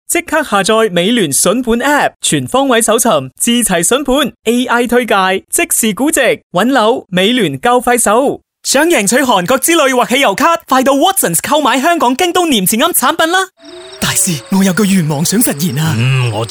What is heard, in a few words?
Cantonese VO